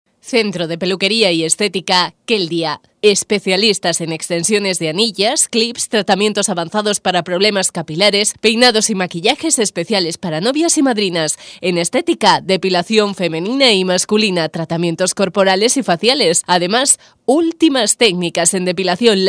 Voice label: locutora castellana

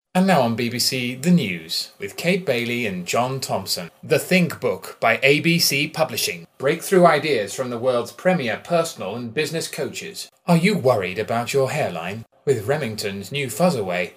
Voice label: locutor ingles

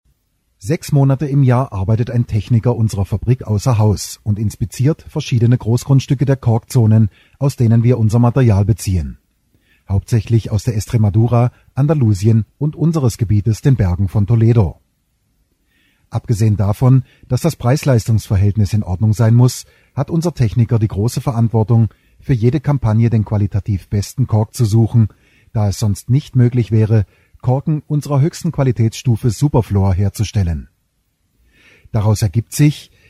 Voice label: locutor aleman; Deutscher Sprecher

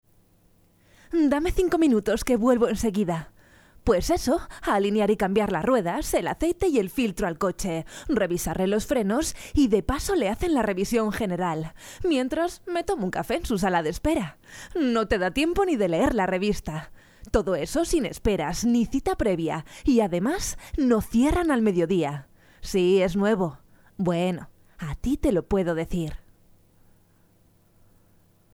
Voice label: locutora spain

